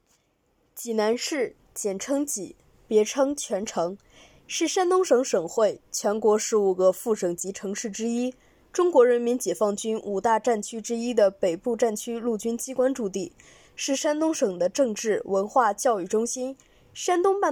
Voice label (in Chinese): 济南宣传词稿